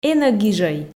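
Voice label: "энӧ гижӧй", Komi, female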